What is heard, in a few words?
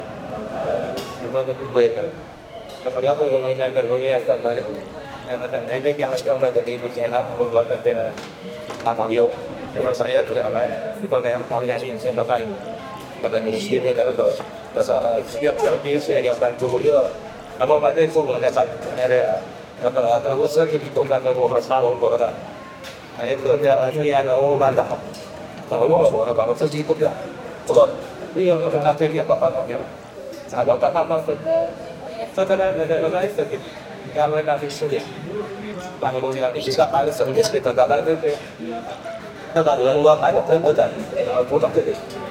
voice male spanish chile, commercial